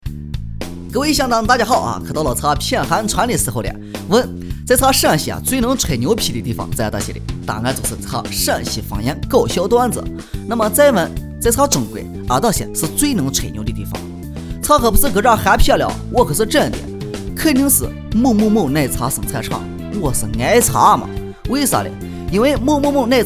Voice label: 方言陕西方言

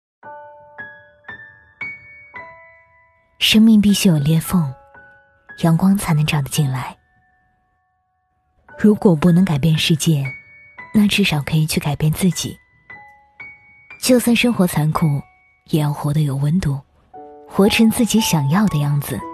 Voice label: 情感电台

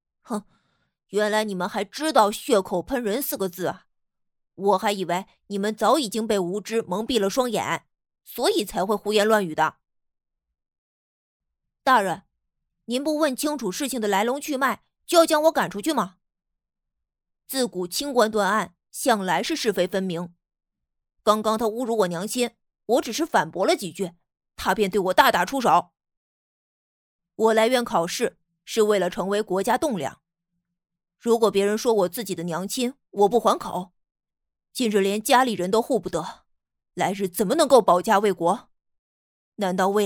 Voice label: 少年角色演绎

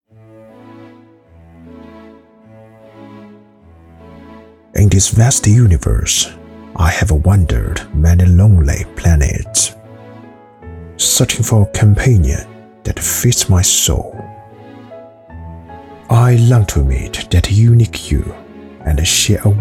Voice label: 英文 走心 低沉 走心 娓娓道来 男

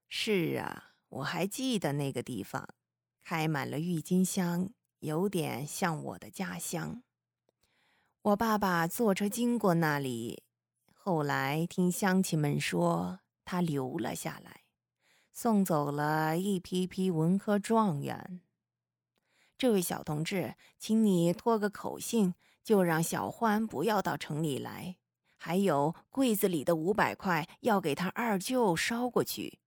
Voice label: 老奶奶音

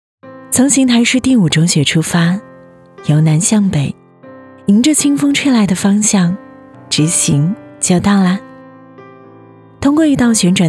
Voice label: 宣传片知性温柔亲和力年轻文旅

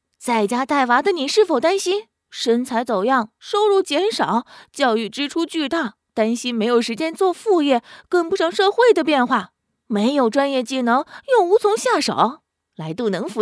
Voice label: 广告 信息流

